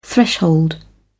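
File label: "threshold", British English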